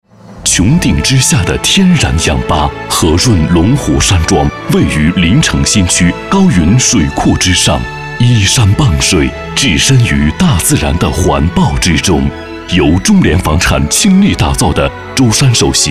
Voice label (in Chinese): 广告 地产 磁性, 男国; 龙湖山庄